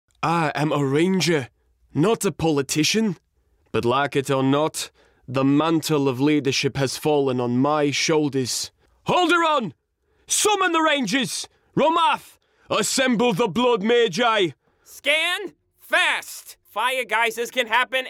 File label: Character